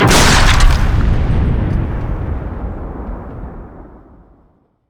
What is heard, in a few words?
pain; LoL; trundle